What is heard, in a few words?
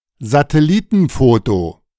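"Satellitenfoto", German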